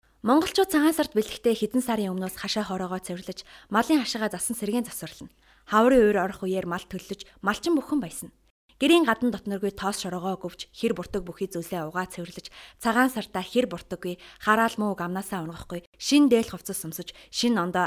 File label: Mongolian Female